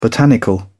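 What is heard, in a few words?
"botanical", British English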